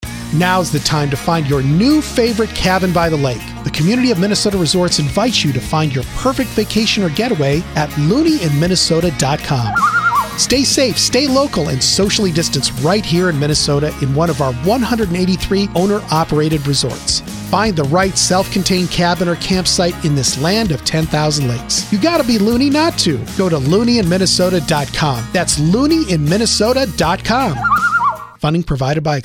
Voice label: Radio Commercial; CMR; BOBFM